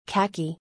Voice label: "Khaki", English

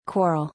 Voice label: "Coral", English; color-in-english

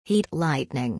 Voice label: "Heat Lightning", English; thunder-and-lightning-in-english

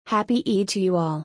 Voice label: "Happy Eid to you all", English

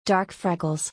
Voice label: "Dark freckles", English

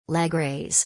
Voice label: "Leg Raise", English

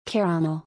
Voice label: "Caramel", English

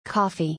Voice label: "Coffee", English; color-in-english

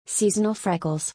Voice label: Seasonal freckles, English

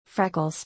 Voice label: "Freckles", English

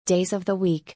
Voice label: days of the week, English

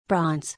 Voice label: "Bronze", English

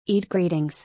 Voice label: "Eid greetings", English

